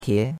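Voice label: "tie2", Mandarin Chinese; Cours-de-Chinois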